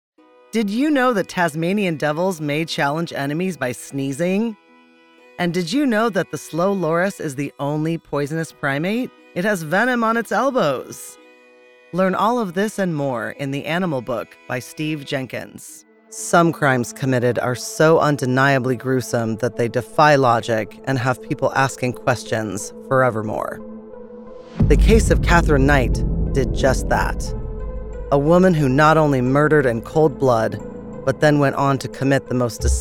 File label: NARRATION